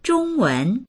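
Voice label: "zhong1wen2", Mandarin Chinese; 中文; 中国語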